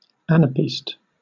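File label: "anapest", British English; pronunciations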